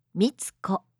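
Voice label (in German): "Mitsuko", japanische Mädchennamen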